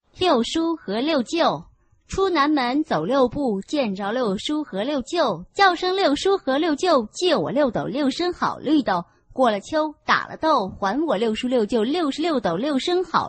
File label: chinese tongue twister